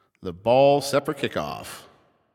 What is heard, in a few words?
Ball Placed in Start Ring, Announcer